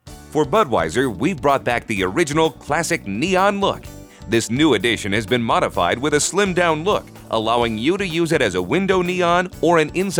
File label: narr, male voice talent